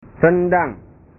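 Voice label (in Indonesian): "rendang", Indonesian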